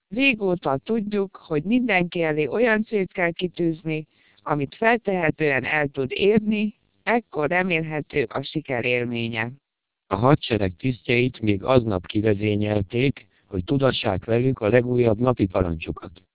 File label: 1200 bps TWELP, Hungarian